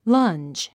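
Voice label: "lunge", English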